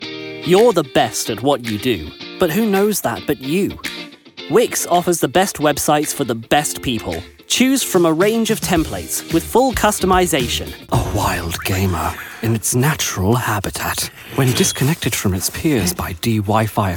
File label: Commercial, en-US